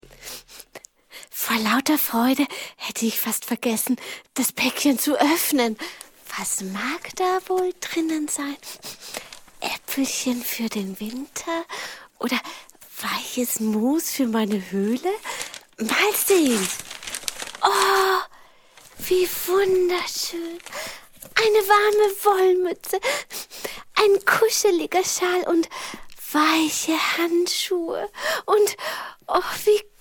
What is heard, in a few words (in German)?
Hoerspielszene